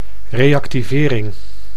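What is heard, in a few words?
"reactivering", Dutch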